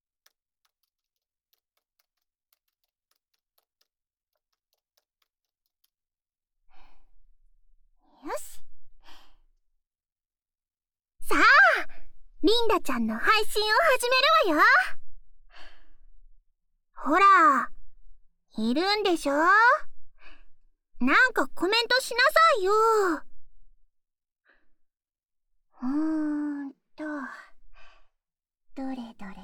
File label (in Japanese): ご挨拶・耳かき; みんなよろしく！; VTuber; VTuberに転声してみた 〜山本希望→桃栗りんだ〜